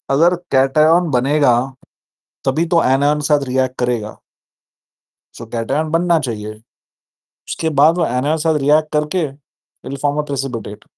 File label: voice message; Haloalkanes 1